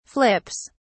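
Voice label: "flips", British English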